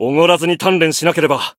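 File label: Voice growth, Japanese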